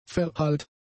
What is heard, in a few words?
"verhallt", German; adjectives